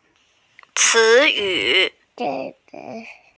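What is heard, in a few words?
"words", Mandarin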